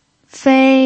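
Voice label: "fei", Mandarin Chinese, first tone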